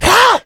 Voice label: been shot, zombie; Left 4 Fortress